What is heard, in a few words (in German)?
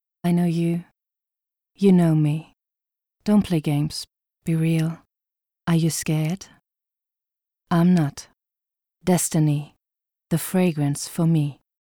englisch Werbung